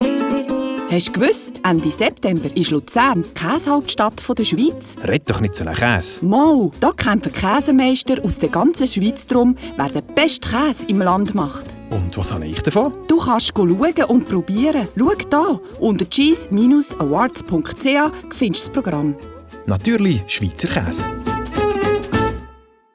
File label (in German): Radiospot; Swiss Cheese Award